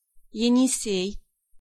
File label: "Enisei", Pronunciation